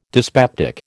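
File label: "dyspeptic", American English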